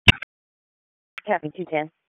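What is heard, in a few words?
Police Northeast District Dispatch, p25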